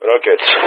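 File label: "rockets", Greek; Weapon; Speech; DCS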